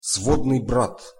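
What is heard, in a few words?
"svodnyj brat", Russian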